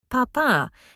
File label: dad in spanish